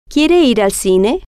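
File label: "quiere Ir Al Cine", Spanish, female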